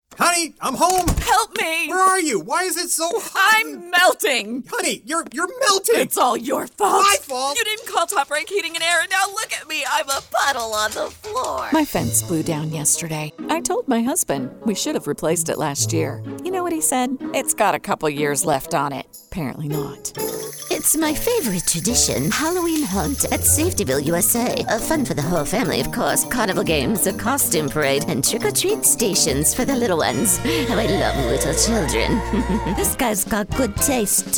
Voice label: Commercial Characterization Role Play